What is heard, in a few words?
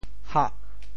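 "hah8", Teochew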